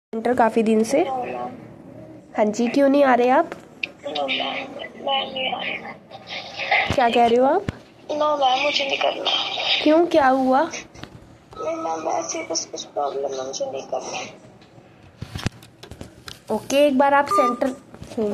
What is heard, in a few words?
call recording